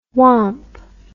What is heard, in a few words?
"womp", English